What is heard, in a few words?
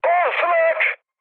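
damaged aa, mood high, Turkish; War Thunder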